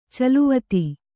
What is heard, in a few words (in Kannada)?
"ಚಲ್ಲವತ್ತ", Kannada